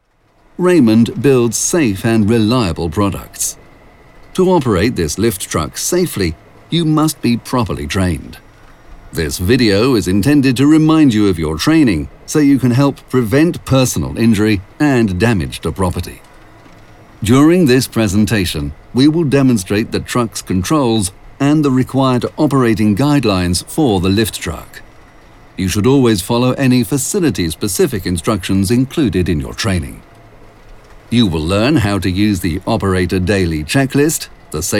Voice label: E-LEARNING; RAYMOND